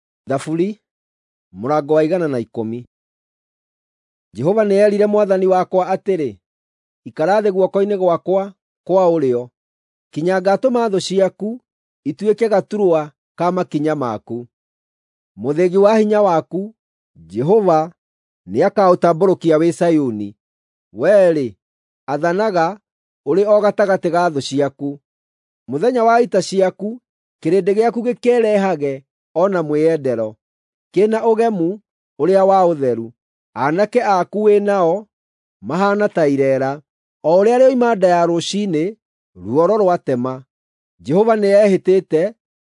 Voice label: psalms, gikuyu drama